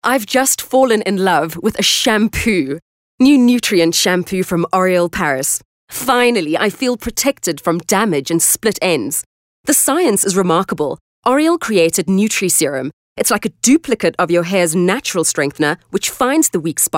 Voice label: English Bright